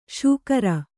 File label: "ಶುಕ್ರ", Kannada